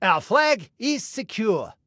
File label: Flag Secure, Male